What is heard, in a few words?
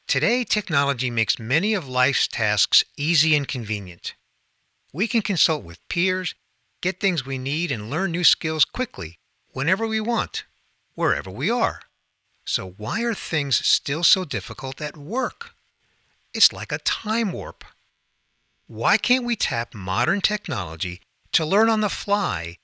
Explainer Video sample; Allego